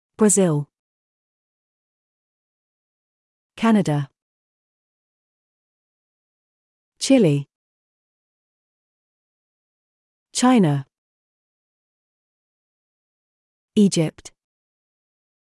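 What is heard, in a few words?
British; countries languages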